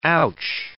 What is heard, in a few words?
"ouch", English word